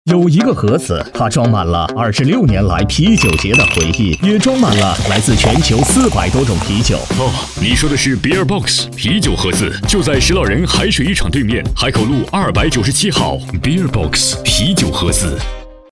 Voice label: 男 广告

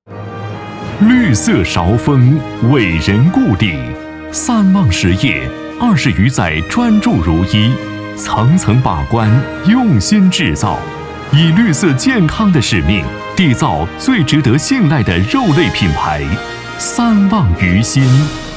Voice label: 男 广告; 三旺实业

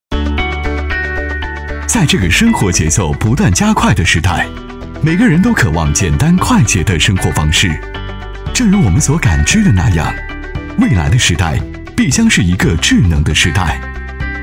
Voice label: 男 专题 锁具（年轻品质科技感）; 德克锁具

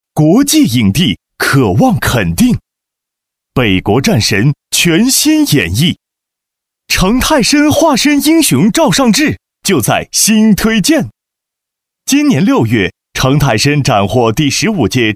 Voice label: 男 广告

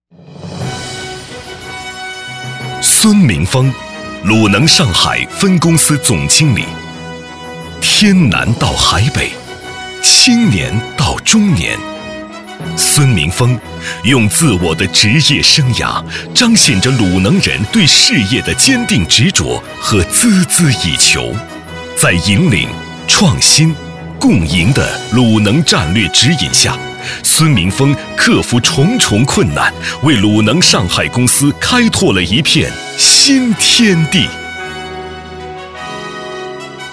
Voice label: 男 专题 人物专题解说

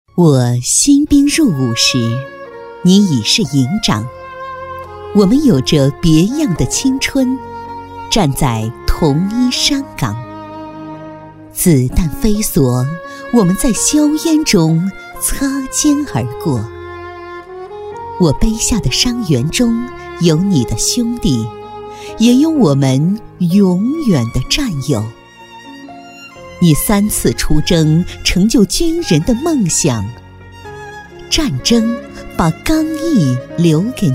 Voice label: 女-专题-抒情; OK诗集; 同学聚会

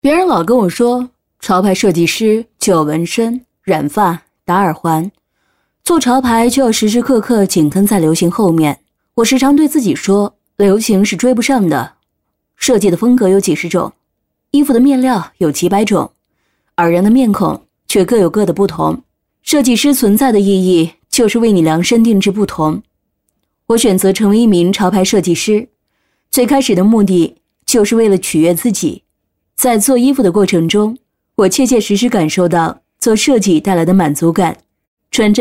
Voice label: 女 旁白 潮牌设计师酷酷的

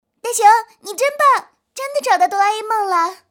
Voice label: 女 模仿; 静香

